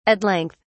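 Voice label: "at length", British English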